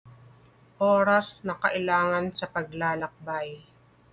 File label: Tagalog